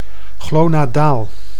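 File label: "glonadaal", Dutch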